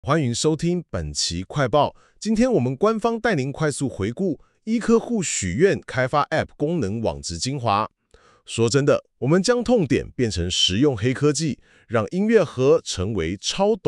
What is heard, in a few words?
podcast zh; Muro Box App 功能